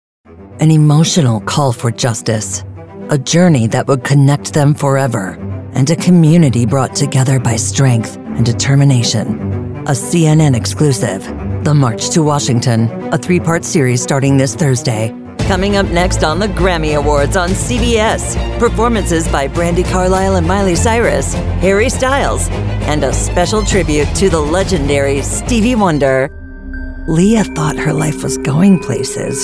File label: TV Promo, voiceover